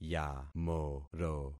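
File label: "yamoro", concatenated syllables; Affixing experiment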